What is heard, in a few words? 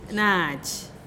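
"naachih", zapotec; wet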